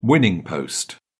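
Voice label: "winning post", British English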